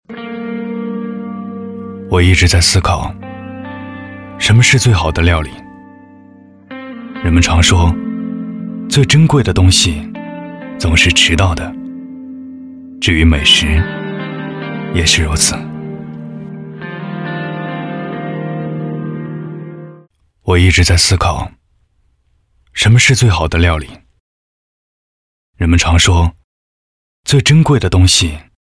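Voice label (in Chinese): 男 走心独白, 普通话; 料理; neixindubai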